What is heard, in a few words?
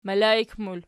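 "melaik", plural, Archi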